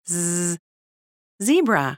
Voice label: babys sound audio ZEBRA